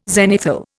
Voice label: "zenithal", Indian